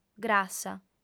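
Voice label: "grassa", Sardinian, female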